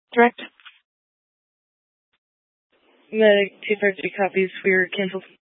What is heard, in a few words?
Fire Departments, scanner